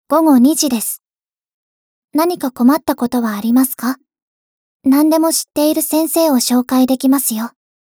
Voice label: 時報ボイス 電脳少女; ボイスコレクション

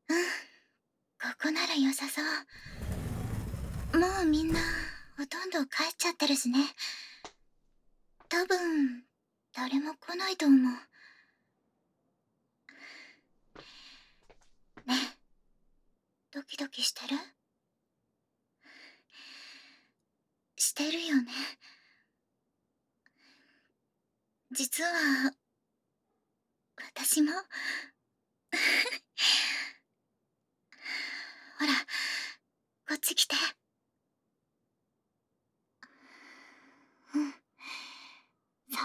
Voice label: たっぷり耳舐め手コキ, ASMR

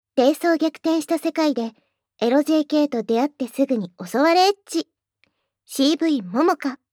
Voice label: タイトルコール, ASMR

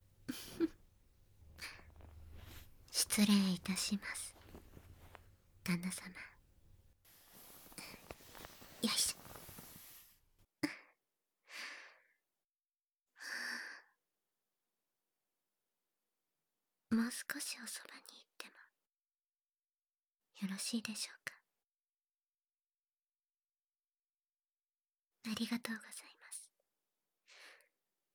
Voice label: 愛され過ぎ添い寝（バイノーラルマイク 効果音あり）, ASMR

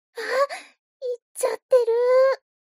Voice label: mob 女子 台詞

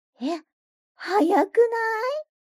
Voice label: mob 女子 台詞

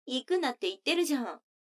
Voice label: 女の子台詞

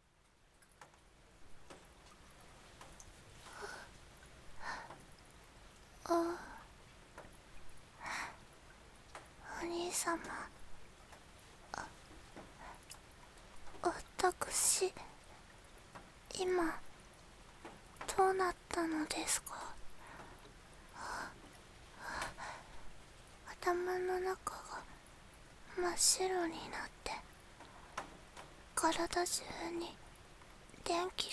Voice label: 雨降りバージョン, ASMR; 田舎の妹の「何でも言う事聞く券」

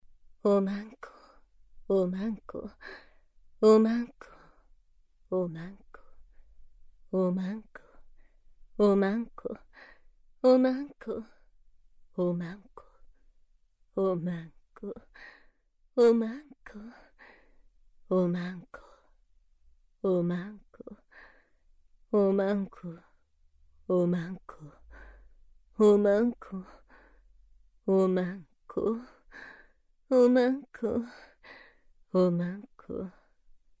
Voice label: 大人のお姉さんループ

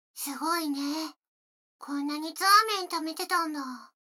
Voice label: 女の子台詞, ASMR

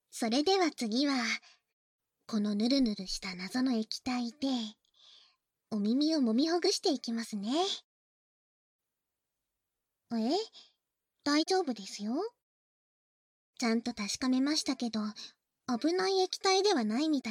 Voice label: 耳オイルマッサージ, 囁き重視編集 効果音なし; 秘薬による耳オイルマッサージ

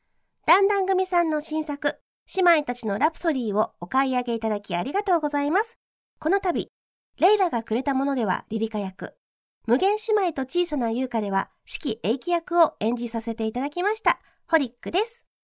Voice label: オーディオコメンタリー

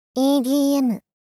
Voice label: ダウナー×中音域; いーでぃーえむ（EDM)

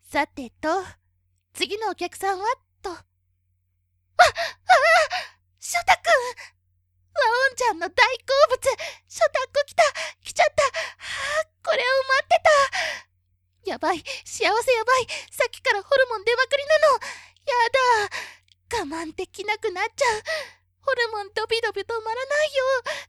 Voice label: いらっしゃいませ, ASMR; ショタ君版

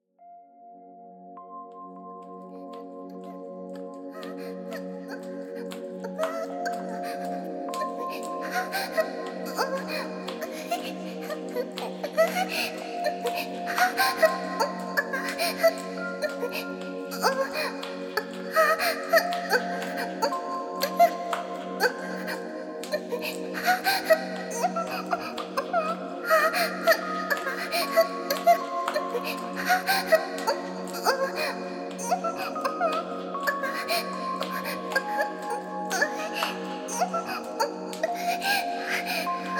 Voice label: ボイスのみ; 夢の中で……

